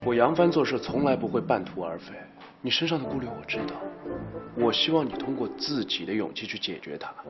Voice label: drama